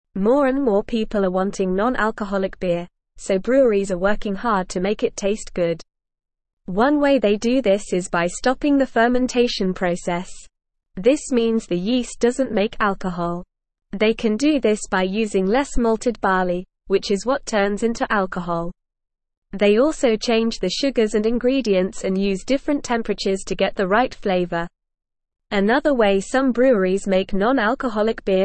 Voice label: English Upper Intermediate NORMAL Reading; English Newsroom; Innovative Brewing Techniques Meet Rising Demand for non alcoholic beer

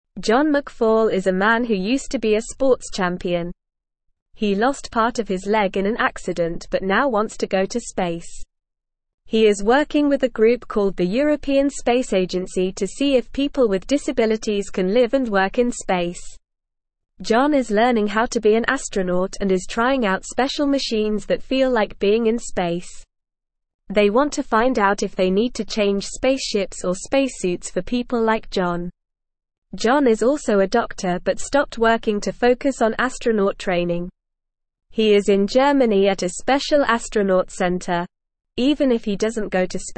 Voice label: English NORMAL Reading; John McFall From Sports Champion to Space Explorer

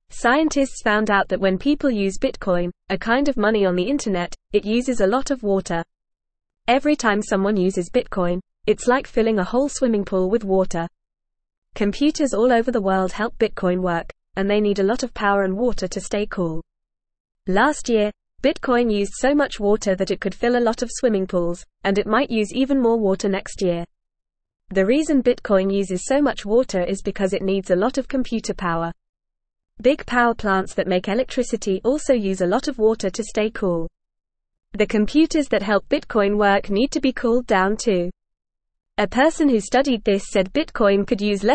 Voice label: English Newsroom Lower Intermediate FAST Reading; Bitcoin Uses a Lot of Water Which is Bad

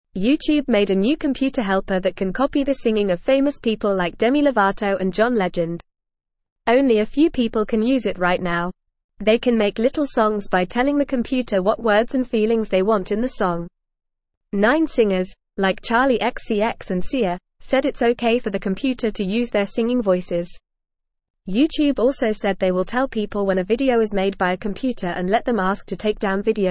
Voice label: English Beginner FAST Reading; English Newsroom; YouTubes Dream Track Sing Like Famous People

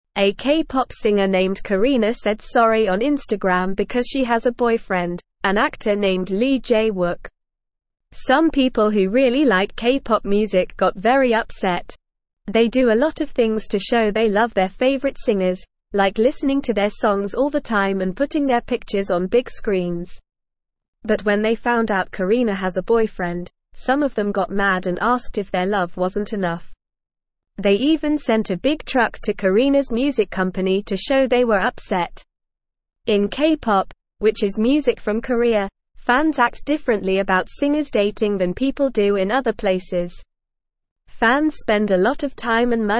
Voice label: English Newsroom Beginner NORMAL Reading

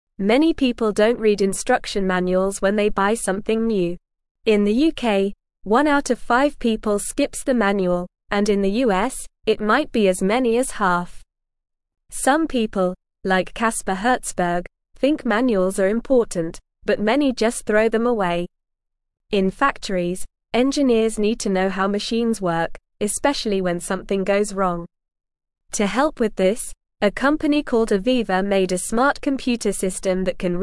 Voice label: English Lower Intermediate NORMAL Reading; Smart Computers Help People Understand Machines; English Newsroom

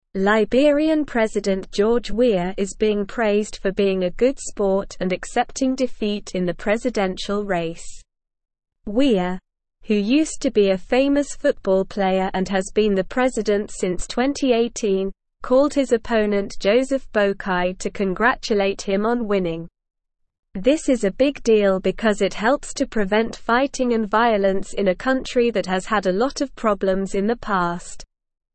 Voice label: Upper Intermediate SLOW Reading, English; Liberian President George Weah Praised for Sportsma; English Newsroom

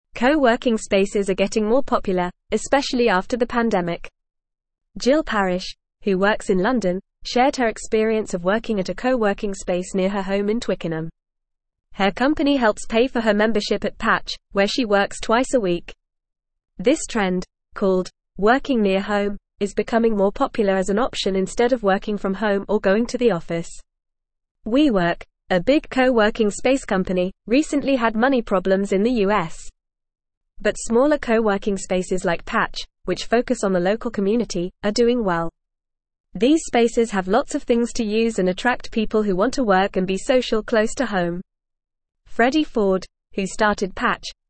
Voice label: Upper Intermediate FAST Reading, English; The Rise of Local Co Working Hubs A Solution; English Newsroom

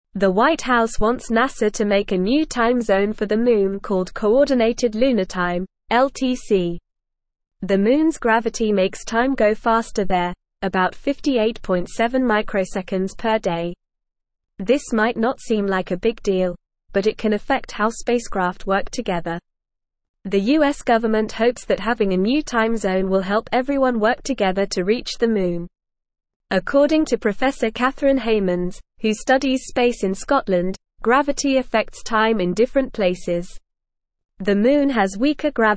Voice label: English NORMAL Reading; US Urges NASA to Develop Moon Time Zone

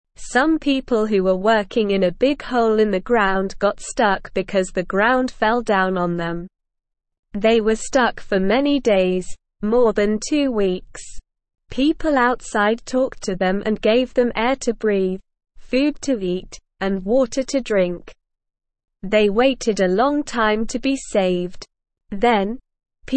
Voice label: English Newsroom Beginner SLOW Reading; Workers Rescued from Big Hole After Two Weeks

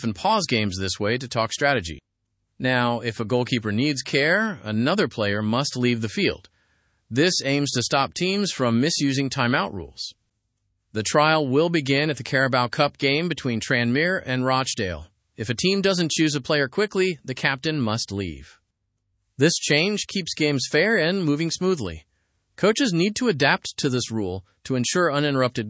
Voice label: learn english through news lower intermediate; football trials new rule for goalkeeper timeout

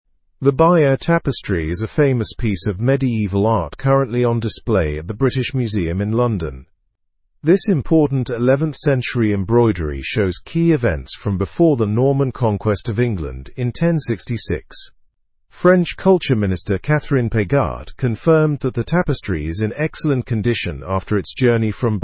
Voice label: english through news upper intermediate; bayeux tapestry makes historic london visit

